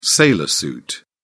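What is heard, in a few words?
"sailor suit", British English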